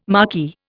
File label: "marquee", Indian